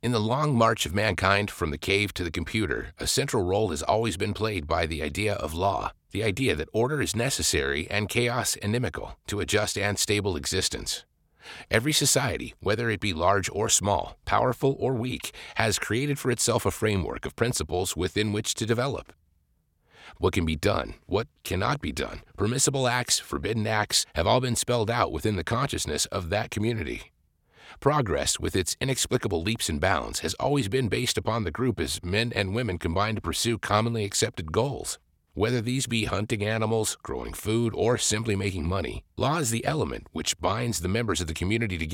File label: Non-Fiction Narration